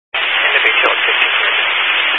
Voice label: Highway Patrol, Phoenix Metro West Area, scanner

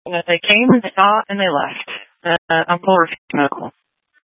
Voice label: Sheriffs Office, scanner

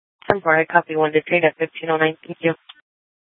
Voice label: Sheriff, scanner; Maricopa County (P25) - Thompson Peak; Shrf Southeast

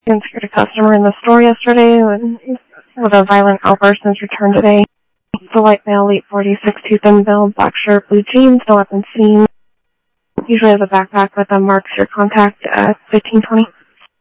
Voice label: Phoenix PD, scanner audio

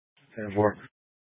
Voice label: Sheriffs Office Central Court Security, scanner; Maricopa County; Chandler-Mesa Simulcast

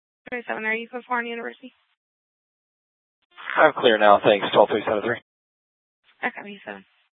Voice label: Superstition Dispatch, Mesa PD; TOPAZ Regional Wireless Cooperative (TRWC) - Mesa Simulcast; 4 Superstition Dispatch

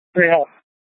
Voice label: Light Rail Emergency, scanner

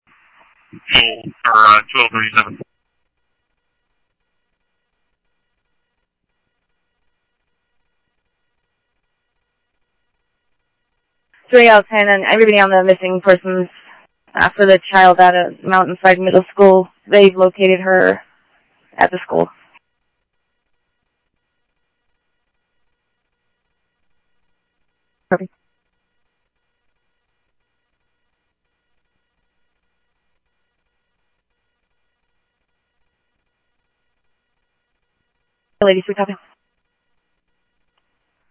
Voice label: Police, scanner; SCTSDLE PD - PD1; H-Scottsdale; ScottsdalePolice

